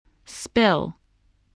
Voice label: "spill", English voice